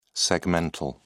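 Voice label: "segmental", British English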